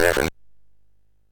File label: MOS6581R3, Electronic Speech Systems